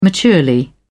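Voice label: "maturely", British English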